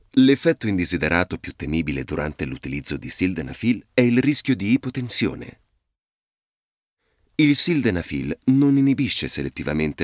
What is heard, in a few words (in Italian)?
Audioguida, ITALIAN MALE; audioguide